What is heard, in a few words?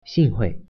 "meet", Mandarin